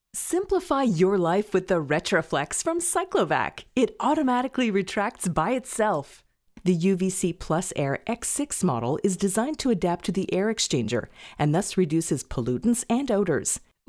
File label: English Narration; AdvantageIVR